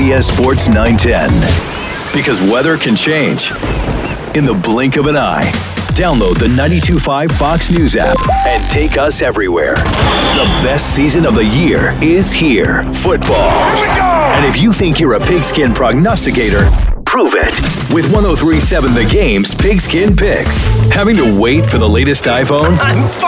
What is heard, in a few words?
radio men, radio imaging